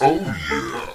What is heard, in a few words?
"oh yeah" SEXY